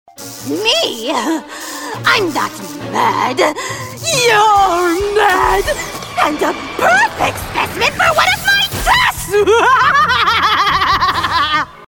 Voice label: Mad Scientist; video game